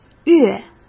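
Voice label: "越" Mandarin Chinese; hsk; chinese-flashcards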